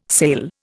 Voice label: "sale", Indian